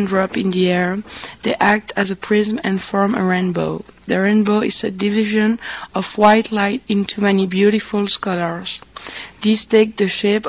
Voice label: france, dialects

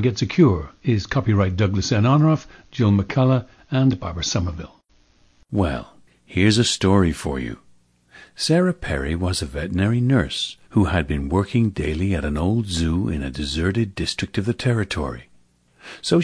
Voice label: General American

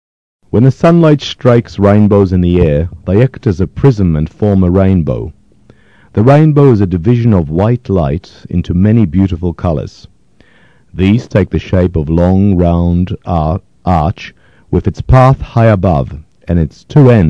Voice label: australia

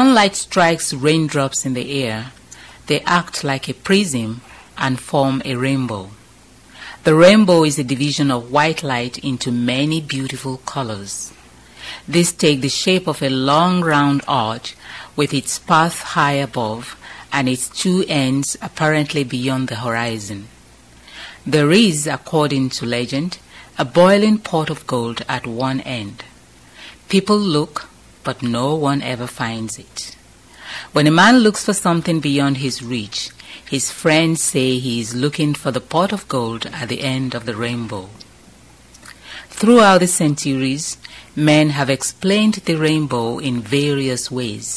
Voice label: nigeria

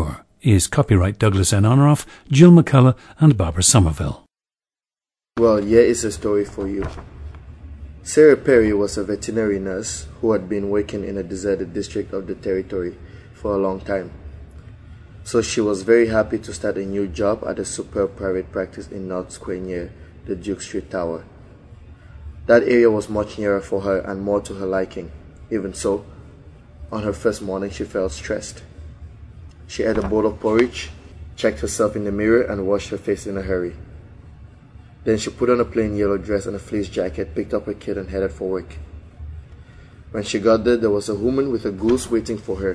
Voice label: cameroon

